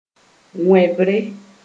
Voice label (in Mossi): "wẽbre", moore